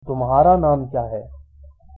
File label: name, hindi